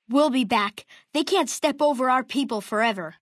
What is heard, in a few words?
male child greeting; FNV